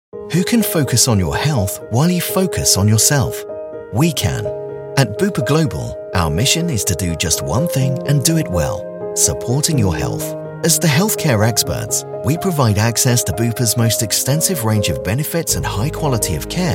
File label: commercials